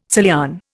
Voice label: "Salian", Indian